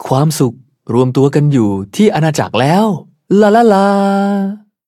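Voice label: levelup, Thai; Cookie Run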